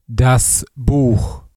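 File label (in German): "Buch", German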